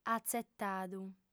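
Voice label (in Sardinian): "atzetadu", female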